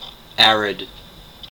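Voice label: "arid", US English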